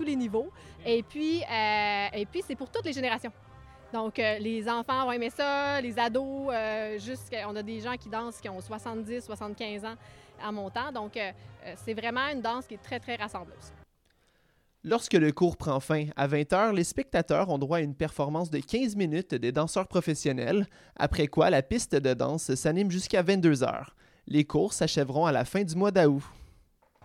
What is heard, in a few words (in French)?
reportage swing